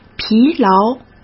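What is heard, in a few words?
"疲劳", Mandarin Chinese; chinese-flashcards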